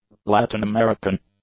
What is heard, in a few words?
"Latin-American", english; adjectives